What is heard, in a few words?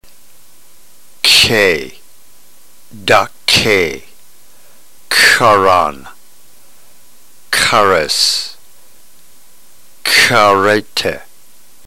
drill, ntgreek